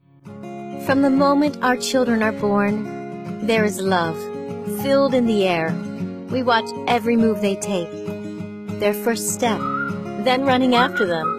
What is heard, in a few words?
Mom Commercial